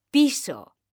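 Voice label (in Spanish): "PISO", Spanish; mekanta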